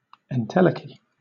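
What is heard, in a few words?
"entelechy", British English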